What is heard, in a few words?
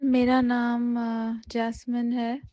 punjabi; POP UP Zagreb